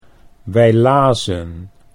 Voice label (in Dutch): wij lazen, Dutch